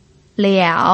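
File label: "liao", third tone, Mandarin Chinese pinyin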